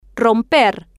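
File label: "romper", Spanish, female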